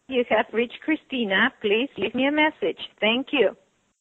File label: voicemail